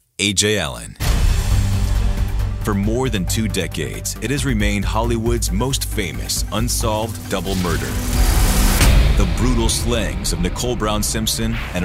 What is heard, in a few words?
Narration